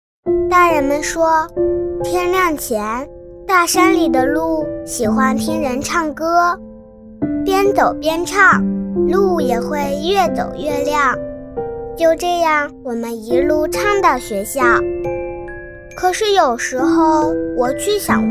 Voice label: 女, 女真童文艺

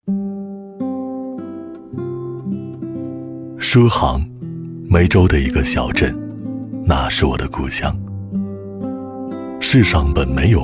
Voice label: 男 旁白讲述 深沉走心; 畲坑故乡; 电视台声; 质感磁性

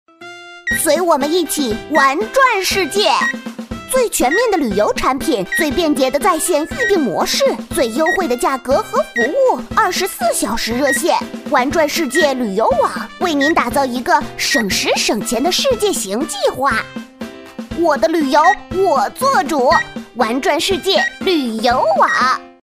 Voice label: 女 男童声 广告片 可爱男童5-6岁; 中汇国旅

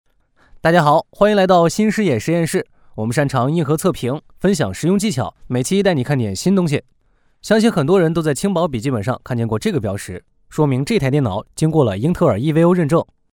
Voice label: 男 抖音短视频 素人; 男C11-抖音短视频【素人：大家好】; 男C11-年轻活力; 素人自然

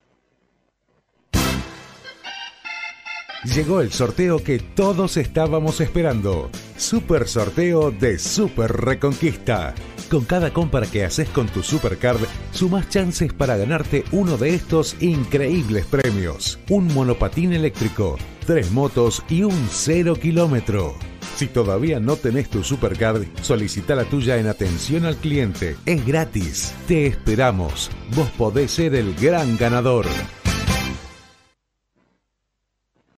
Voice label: 西班牙男声

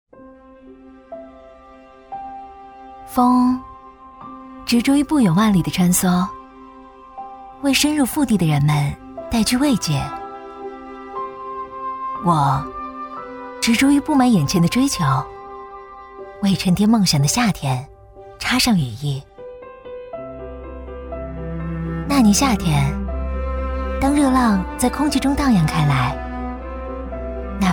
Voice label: 女 微电影 高中生 青春文艺; 女29-大气磁性 年轻舒缓

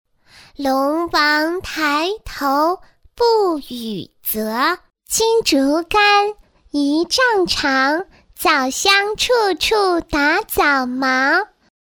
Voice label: 女 儿童配音 女童 5-6岁; 轻松活泼

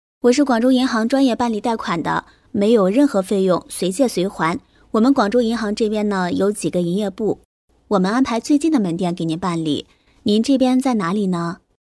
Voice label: 女 电话语音 打电话 素人 亲切

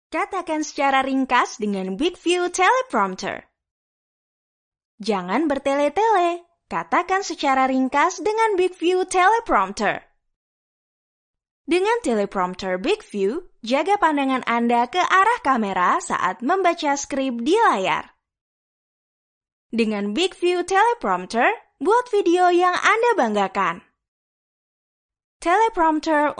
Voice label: 印尼语女声

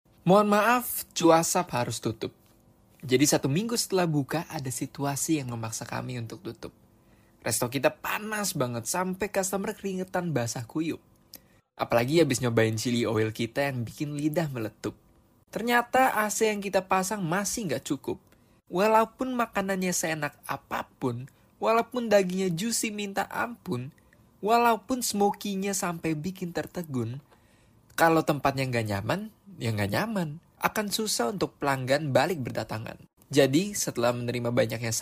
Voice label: 印尼语男声

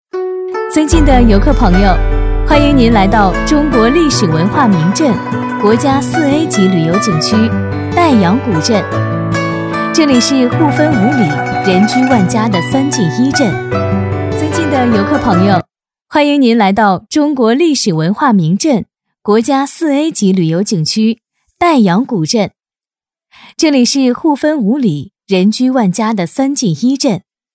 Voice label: 女 景区 古风; 旅游风光景区配音

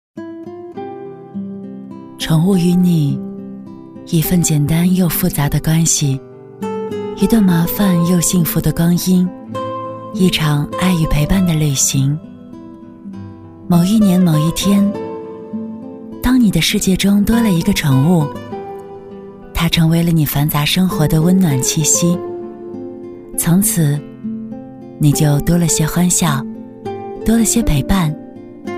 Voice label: 女-微电影-甜蜜 温馨; 宠物与你; 磁性质感

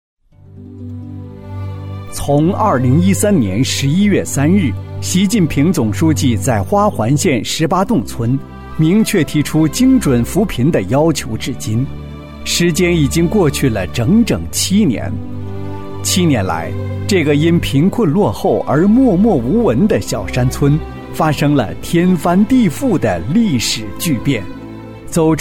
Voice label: 男 政府汇报 沉稳有力; 十八洞村

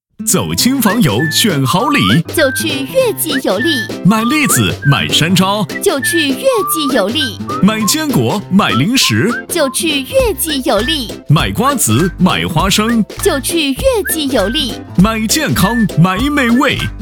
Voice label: 男 促销广告 男女混录 活力激情; 成熟浑厚; 激情力度; 岳记油栗

